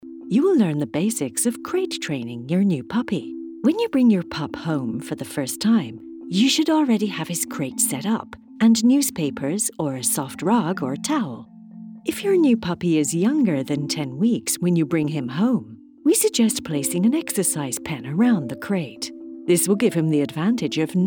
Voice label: Narration US